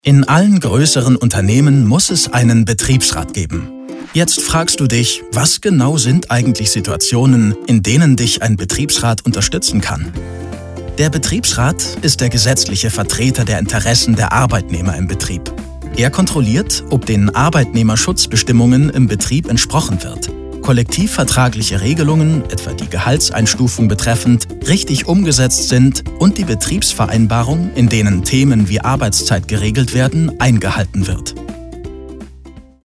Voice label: Erklaerfilm, Presentation, German; Betriebsrat

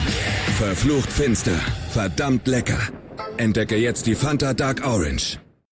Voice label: Werbung; FANTA DarkOrange

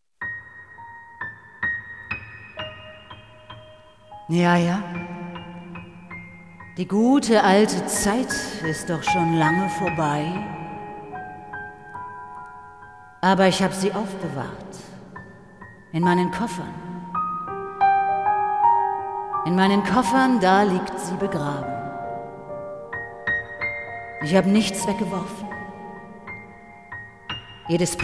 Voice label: Buehne Doku; Marlene Dietrich

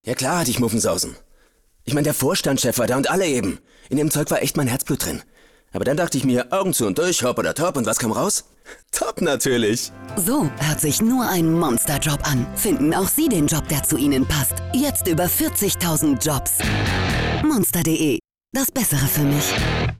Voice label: funkspot; monster de

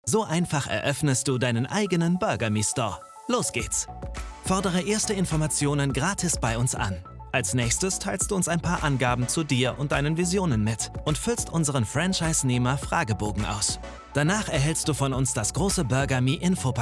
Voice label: Erklärfilm; Burgerme

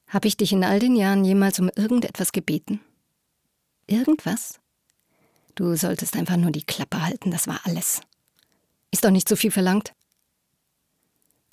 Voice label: synchron

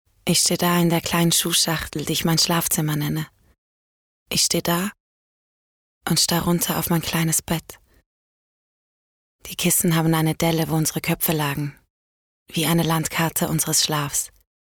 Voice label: monolog; the electric ballroom

